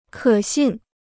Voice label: "可信", Chinese

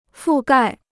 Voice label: "覆盖", Chinese

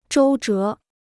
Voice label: "周折", Chinese

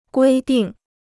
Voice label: "规定", Chinese

